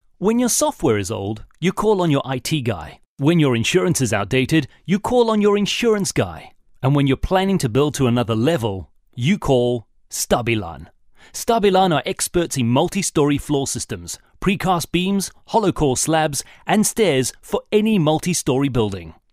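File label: English South African, Male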